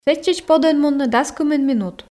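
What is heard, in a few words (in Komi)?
сэтчӧдз подӧн мунны дас кымын минут, Komi, female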